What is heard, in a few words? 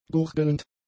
"gurgelnd", German; adjectives